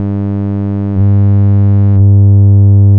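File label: VQ glottal; Glottal source output; Linguistic Phonetics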